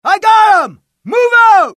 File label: suppress, dialogue, US; mohaa